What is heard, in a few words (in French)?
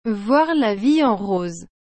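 "voir la vie en rose", French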